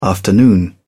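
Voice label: "afternoon", British English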